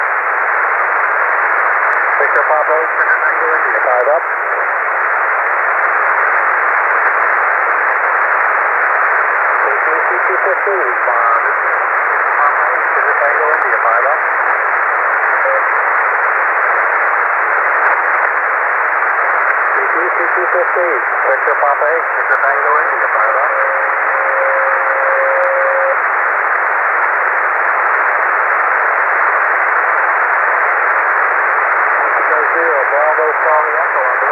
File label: on 21MHz SSB